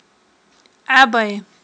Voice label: "Iабай", aghul